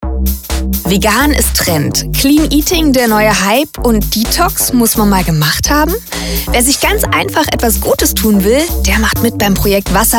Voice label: infomercial; gerolsteiner